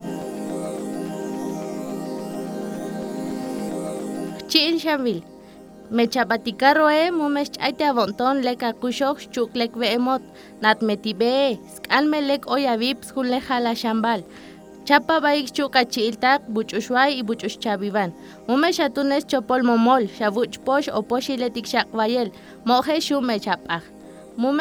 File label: TSOTSIL